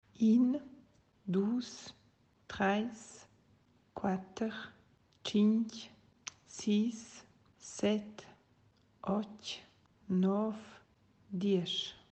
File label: 1-10, Romansh; Romansh page 21